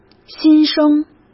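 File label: "新生" Mandarin Chinese; chinese flashcards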